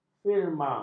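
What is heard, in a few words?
"firma", Malay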